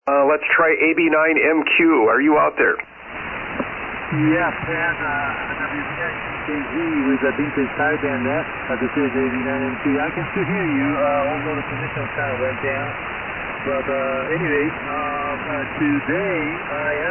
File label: Kenwood TS-830S & Kenwood TL-922A Amp; Normal, IL.